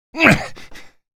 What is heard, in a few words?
monk pain; ravenholm; hl2mp